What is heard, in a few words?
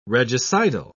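"regicidal", British English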